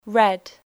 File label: "red", English